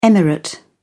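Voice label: "emirate", British English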